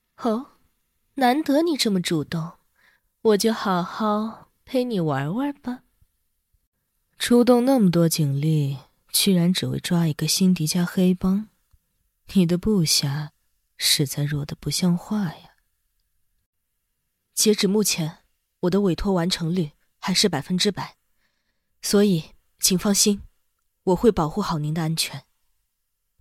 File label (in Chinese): 御姐音; 翻配